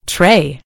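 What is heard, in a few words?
"tray", British English; gcompris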